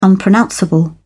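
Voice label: "unpronounceable", British English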